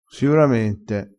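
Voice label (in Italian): "siuramente", vocabolario dialettale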